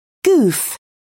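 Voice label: "goof", British English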